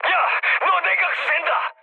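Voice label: Korean damaged water mood med, speech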